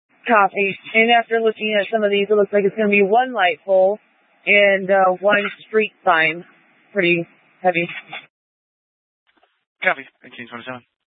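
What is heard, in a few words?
Police Dispatch, Scanner audio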